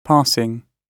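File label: "passing", British English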